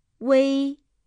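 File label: "ui (uei)", Mandarin Chinese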